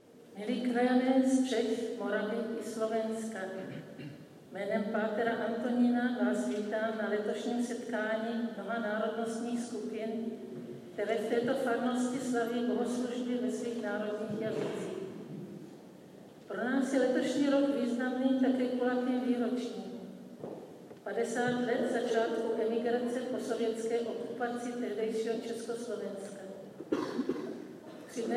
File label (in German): Grussworte auf Tschechisch; Wie sieht Vielfalt an rel Orten aus